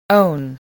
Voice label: "own", English voice